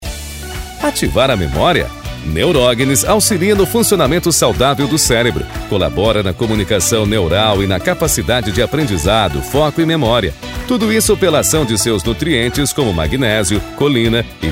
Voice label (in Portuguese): spot